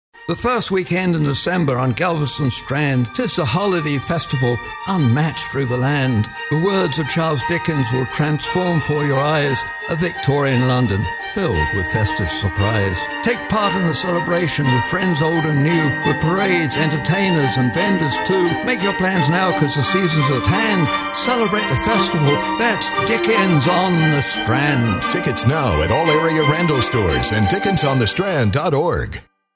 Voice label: commercial; dots